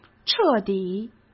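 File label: "彻底", Mandarin Chinese; chinese-flashcards; hsk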